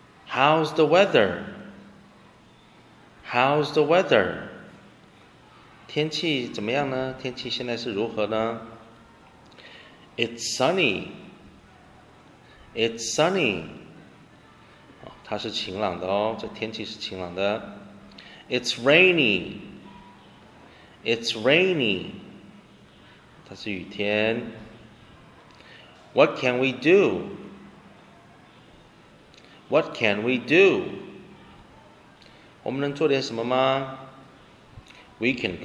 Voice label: conversation